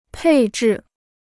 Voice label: "配置", Chinese